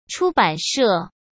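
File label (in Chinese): "出版社", Mandarin Chinese; hsk; chinese-flashcards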